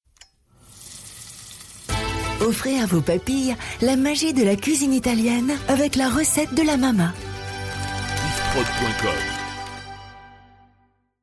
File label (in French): Jingle Recette; Maxitop; Mama